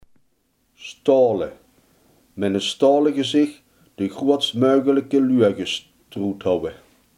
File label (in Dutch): "stalen", Limburgs